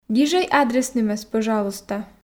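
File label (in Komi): "гижӧй адреснымӧс пӧжалуйста", Komi, female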